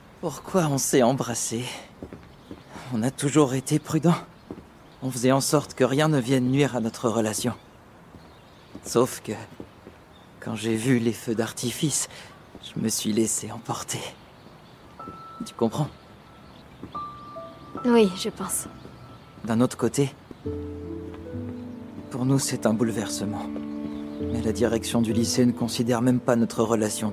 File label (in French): Doublage ado éconduit; Chastity High; Netflix